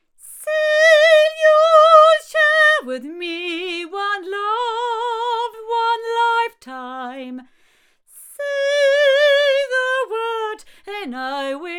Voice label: female; BLUE Babybottle